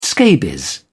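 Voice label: "scabies", English, British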